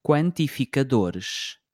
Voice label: "quantificadores", Portuguese

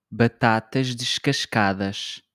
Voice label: "batatas descascadas", Portuguese

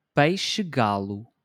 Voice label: "peixe-galo", Portuguese

